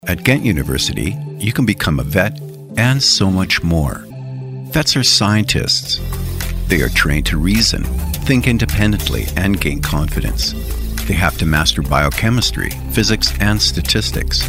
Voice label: english canadian narration

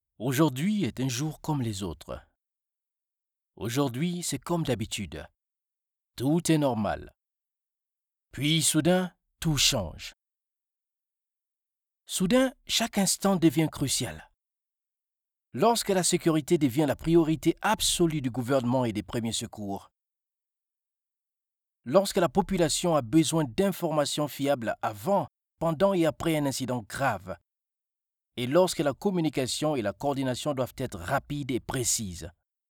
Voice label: french documentaries

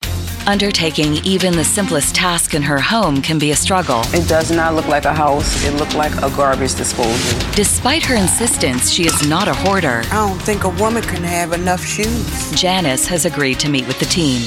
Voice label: English Canadian narration

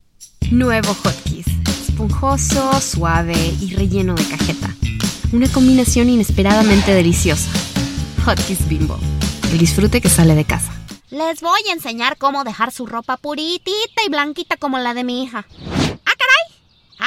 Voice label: spanish latin american others

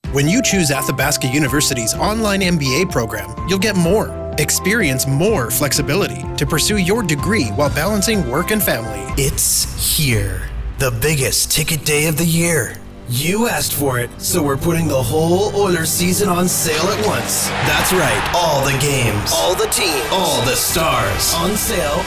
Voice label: english canadian commercial, voice actors; Kanada

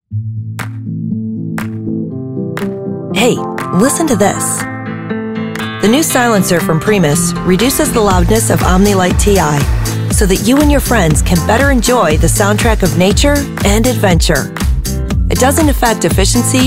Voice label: english american explainer videos

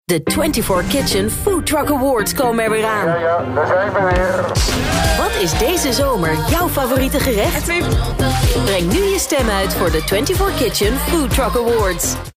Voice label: Dutch promos

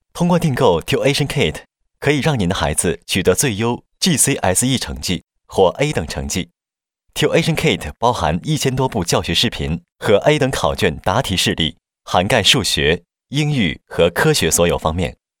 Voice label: chinese mandarin tv shows

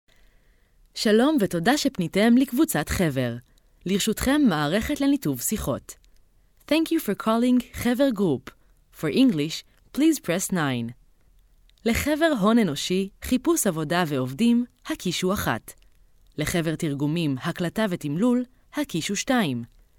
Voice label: hebrew ivr

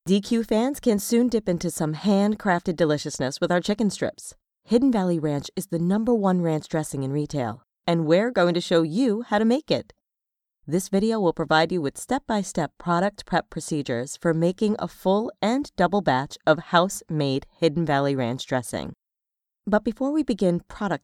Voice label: english international e-learning

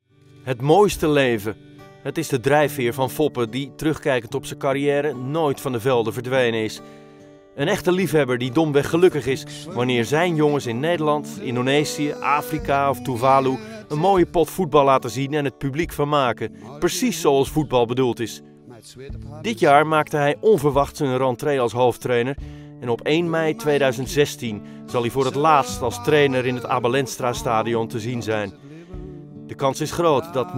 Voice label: dutch documentaries

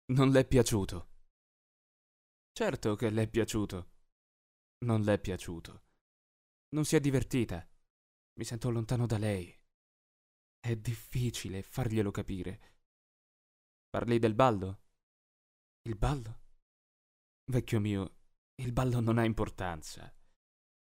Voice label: italian audiobooks

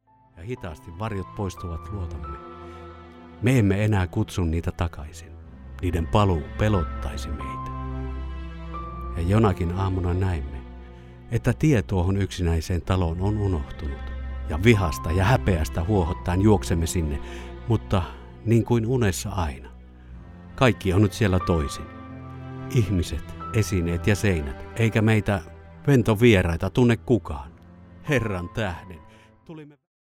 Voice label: Finnish others

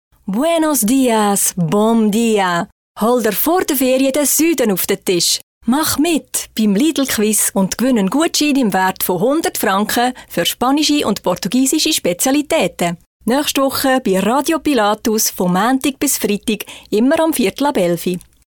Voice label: german switzerland tv shows

